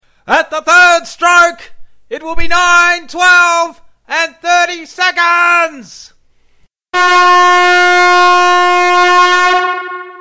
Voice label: speaking clock hard of hearing